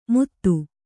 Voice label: "ಮುತ್ತು", Kannada

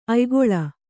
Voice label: "ಅಯಿಗುಳ", Kannada

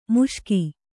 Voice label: "ಮುಷ್ಕ", kannada

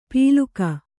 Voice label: "ಪೀಲುಕ", Kannada

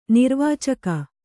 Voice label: "ನಿರ್ವಾಚಕ", Kannada

